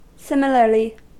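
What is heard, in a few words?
"similarly", American English; pronunciations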